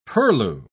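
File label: "purlieu", British English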